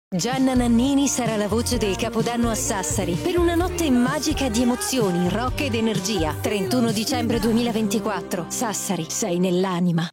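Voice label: italian promos, Female; voice actor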